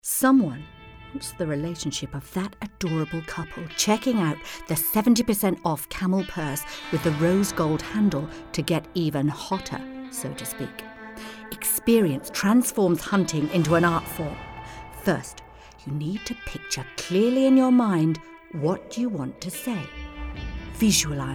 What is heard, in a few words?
female british english outlet comercial; ideasonora